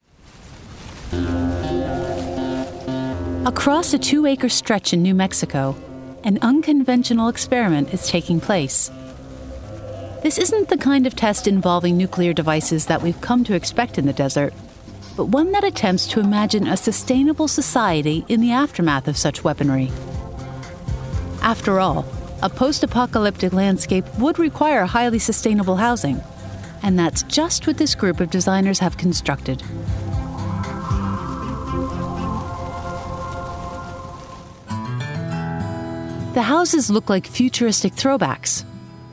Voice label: DOCUMENTARY; Earthships